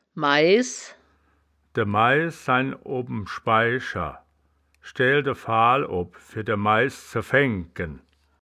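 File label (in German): "mais", wittlicher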